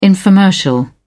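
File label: "infomercial", British English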